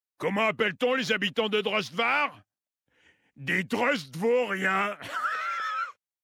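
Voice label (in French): French Homme Blague; Drustvar; Kultirassien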